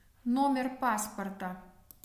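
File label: "nomer pasporta", Russian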